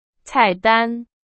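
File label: Chinese, vocabulary; hsk2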